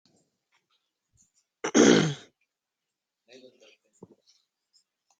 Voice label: voice message; whatsapp